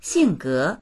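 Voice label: "xing4ge2", Mandarin Chinese; 性格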